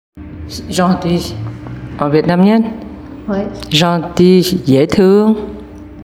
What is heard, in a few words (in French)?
gentil vietnamien prononciation; Dê thương